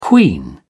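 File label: "queen", British English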